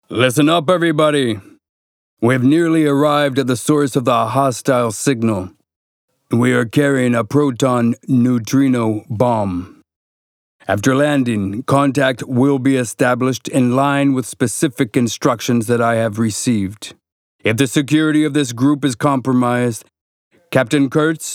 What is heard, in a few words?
movie US